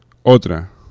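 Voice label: "otra", Spanish; laboratori fonetica; vibrants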